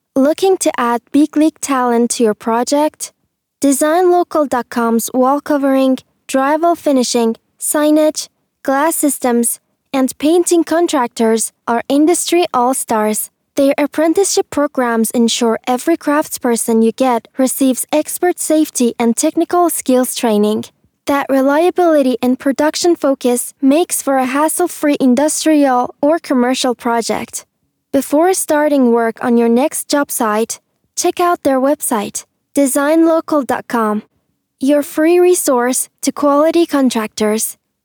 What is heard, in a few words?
Baseball radio sample, radio ad; Baseball round 2